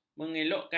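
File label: "mengelokkan", Malay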